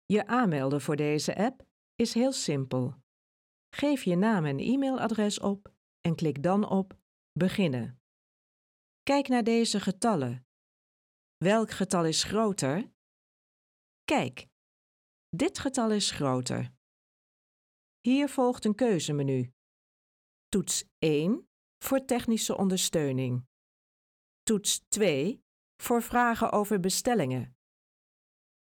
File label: voice demo